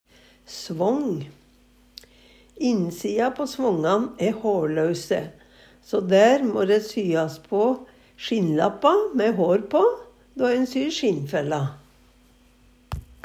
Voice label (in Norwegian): svång, Numedalsmål